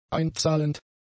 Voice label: "einzahlend", german; adjectives

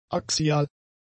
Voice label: "axial", German; adjectives

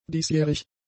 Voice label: "diesjährig", german; adjectives

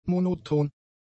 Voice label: "monoton", German; adjectives

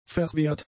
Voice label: "verwehrt", German; adjectives

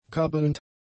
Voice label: "kabbelnd", German; adjectives

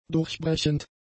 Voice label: "durchbrechend", German; adjectives

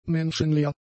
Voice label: "menschenleer", German; adjectives

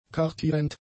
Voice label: "kartierend", German; adjectives

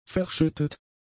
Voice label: "verschüttet", german; adjectives

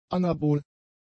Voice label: "anabol", German; adjectives

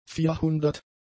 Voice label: "vierhundert", German; numerals

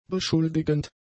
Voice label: "beschuldigend", German; adjectives

